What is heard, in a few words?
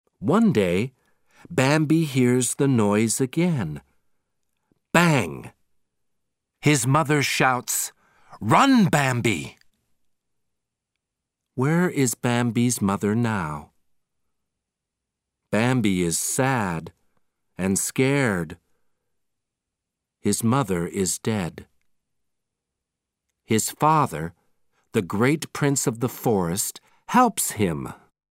Audio British English